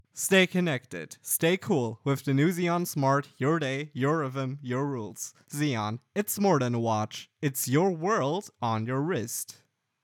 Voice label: Commercial English